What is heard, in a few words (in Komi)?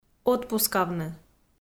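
"отпускавны", Komi, female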